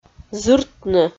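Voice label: "зыртны", Komi, female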